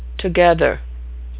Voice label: "together", pronunciation

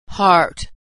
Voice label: "heart", pronunciation

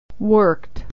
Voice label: "worked", pronunciation

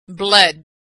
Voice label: verb "bled", pronunciation

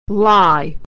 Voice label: verb "lie", pronunciation

